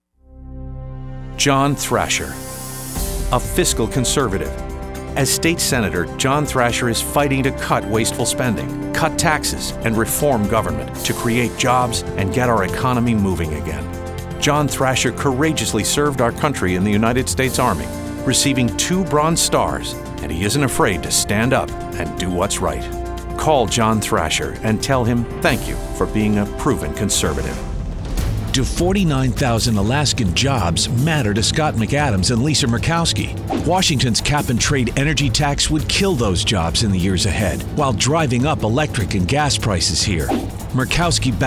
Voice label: REPUBLICAN, Male VOs, Political